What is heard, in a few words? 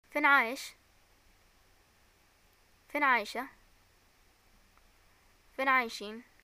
where do u live, arabic, ye